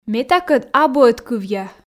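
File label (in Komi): ме такӧд абу ӧткывъя, Komi, female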